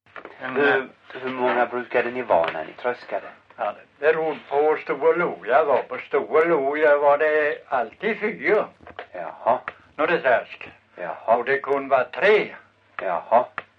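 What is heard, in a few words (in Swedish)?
Långlöt Småland, Svenska sagor dialekter; Folkminnen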